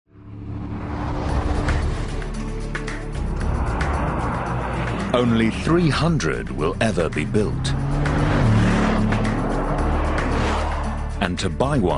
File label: Narration; Bugatti Veyron